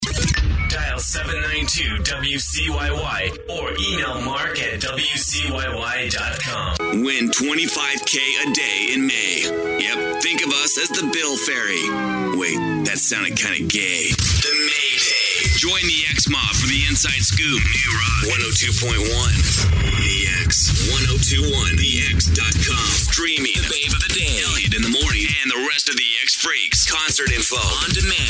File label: Voice Imaging